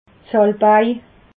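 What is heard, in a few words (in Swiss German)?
"salwei", Alsatian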